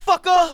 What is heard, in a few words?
"fucker", expletives